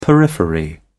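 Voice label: "periphery", British English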